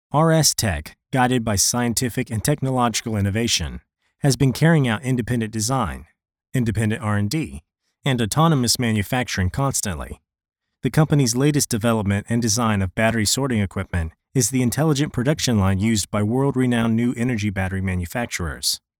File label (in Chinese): 分选机说词-英; 星恒